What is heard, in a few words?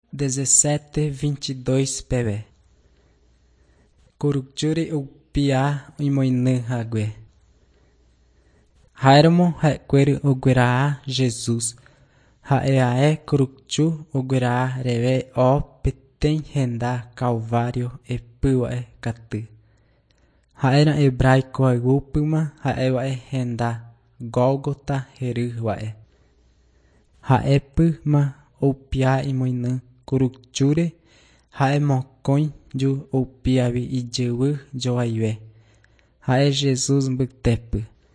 john guarani mbya, bible